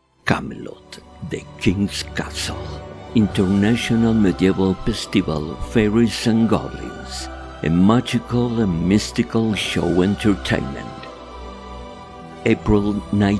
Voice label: Ingles Video narration English; Audio for Totolapan Video narration

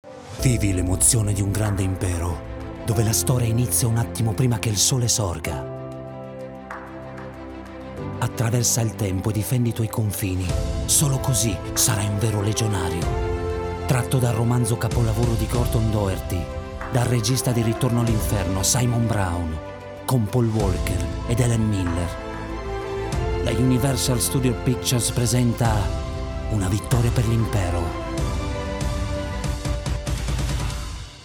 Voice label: ITALIAN MALE MOVIE TRAILER

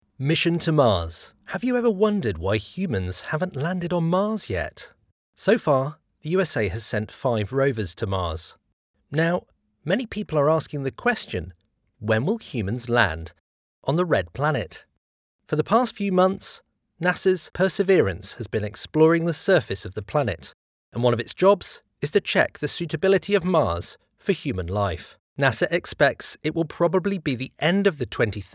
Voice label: E-Learning Voiceover; E-Learning Voiceover Project

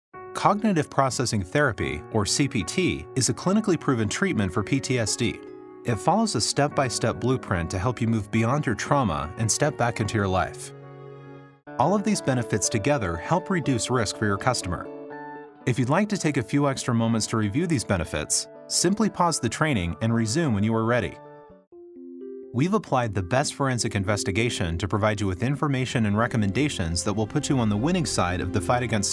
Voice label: eLearning Voice

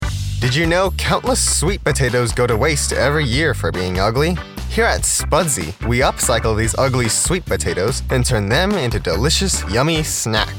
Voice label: Commercial Snack

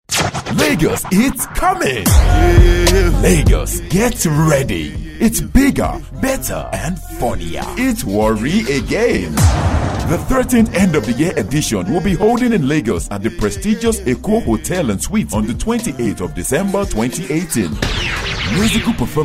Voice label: COMMERCIAL V.O

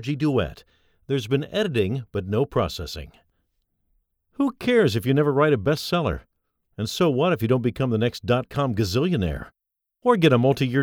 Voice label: Studio Quality

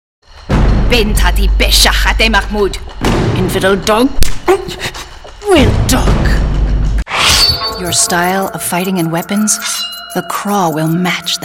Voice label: Female Video Games

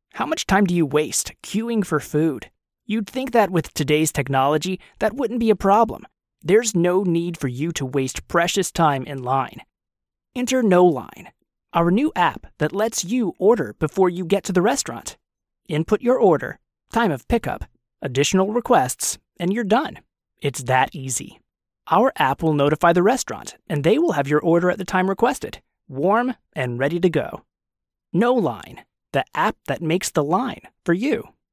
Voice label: product video

